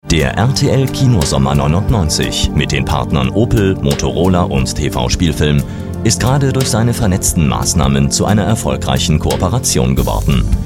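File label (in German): deutsch, männlich; Telefonansagen; Sprecher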